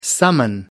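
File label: "summon", English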